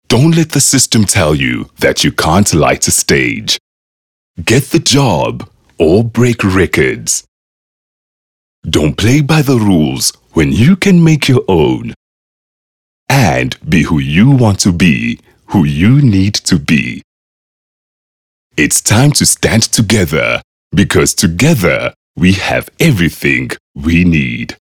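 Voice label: English Dramatic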